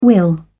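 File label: "will", British English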